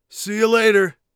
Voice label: bye, Warrior Voice; Warrior Voice Pack